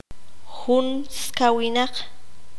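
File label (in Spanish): "veintiuno", Q'anjob'al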